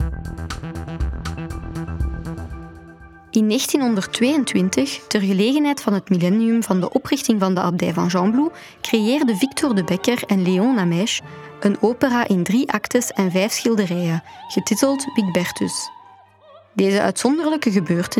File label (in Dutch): NL Audioguide; Gembloux; Academie de Musique